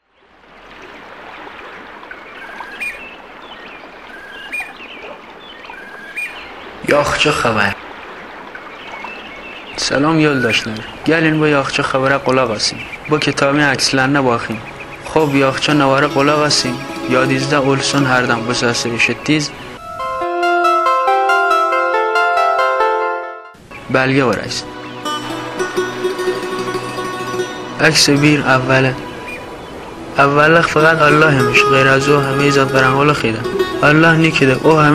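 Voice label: AFSHARI azb dialect, Afshari Azerbaijani dialect, Kerman Baft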